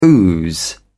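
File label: "ooze", British English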